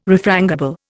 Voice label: "refrangible", Indian